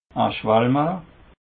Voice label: "schwalmale", alsace

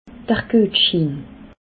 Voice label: "guetschiin", alsace

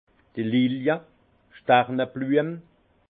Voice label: "lilij", Alsace; vin; lys

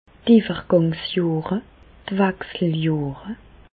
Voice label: "iwwergangsjohre", Bas Rhin; medecine; ménopause

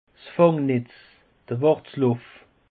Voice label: "fangnetz", alsace; peche

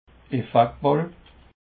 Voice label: "effaktballe", Alsace

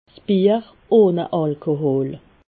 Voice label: "alkohol", alsace

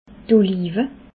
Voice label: "olive", alsace

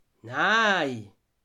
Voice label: "naj", alsace

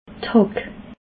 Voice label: "hack", alsace

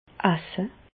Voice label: "esse", Bas Rhin; medecine; manger

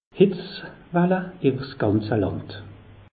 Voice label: "hitzwalle", alsace; meteo

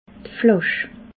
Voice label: "flasch", alsace

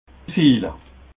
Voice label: "ziele", alsace

